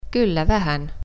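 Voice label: Yes A Little, Finnish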